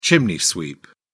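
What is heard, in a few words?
"chimney sweep", English, British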